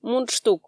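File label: "мундштук", Komi, female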